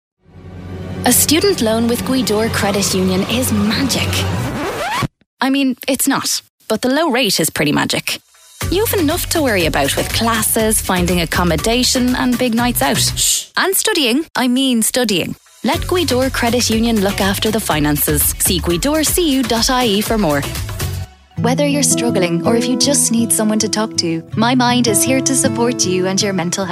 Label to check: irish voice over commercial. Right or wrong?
Right